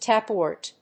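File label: "tapwater", English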